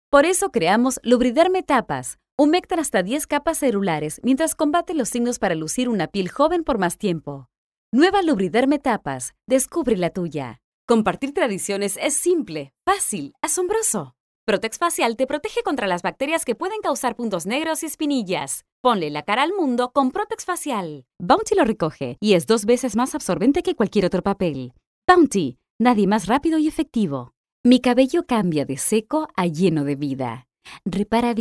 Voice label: NEUTRO

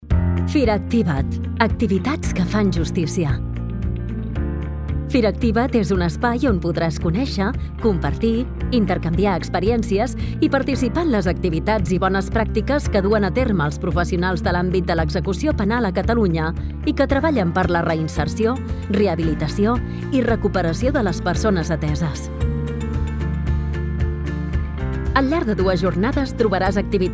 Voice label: LOCUTORA COMERCIAL VIDEO INTERNET

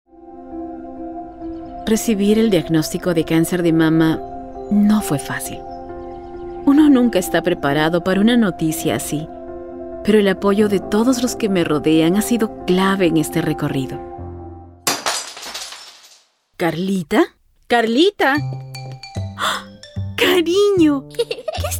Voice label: vo acting spa

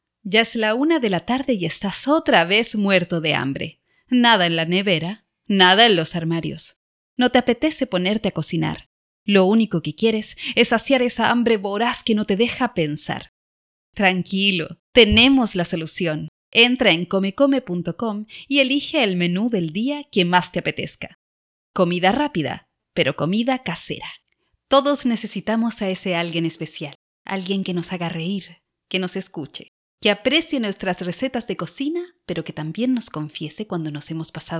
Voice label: Español neutro

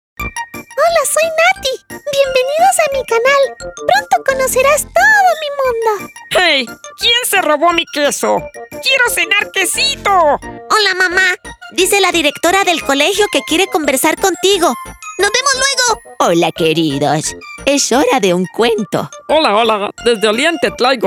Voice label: Locucion Personajes

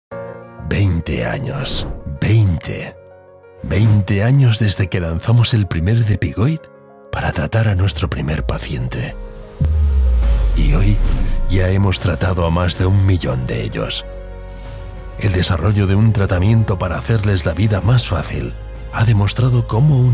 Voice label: Corporativo; Depigoid

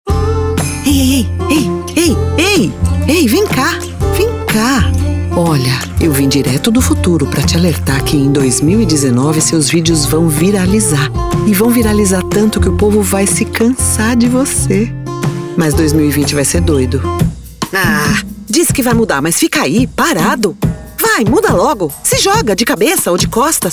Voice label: Publicitario

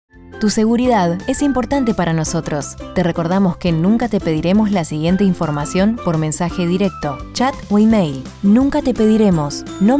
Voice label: spot redes sociales Banco sin fx ARG; rode

